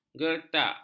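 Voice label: "gertak", Malay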